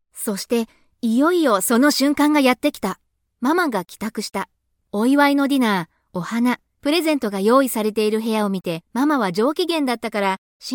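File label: Japanese, teenage girl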